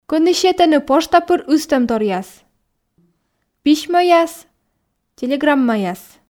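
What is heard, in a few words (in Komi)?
кӧні сетӧны пошта пыр ыстӧмторъяс письмӧяс телеграммаяс, Komi, female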